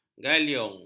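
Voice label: "galiung", Malay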